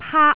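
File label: "paak1", Cantonese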